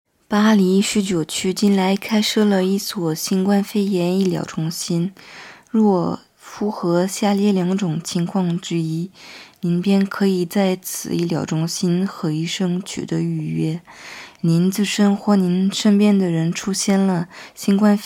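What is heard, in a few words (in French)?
Chinois covid, informations multilingues; Paris19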